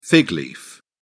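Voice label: "fig leaf", English, British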